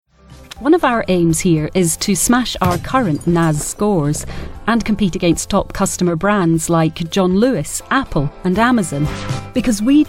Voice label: uk scottish corporate, engelsk-skotsk, kvinne